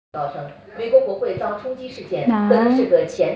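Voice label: "na2", Mandarin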